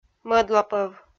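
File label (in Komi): "мӧдлапӧв", Komi, female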